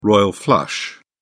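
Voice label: "royal flush", British English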